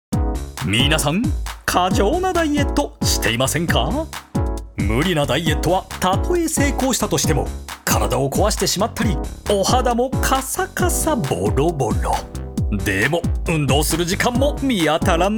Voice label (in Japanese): 少しコミカル通販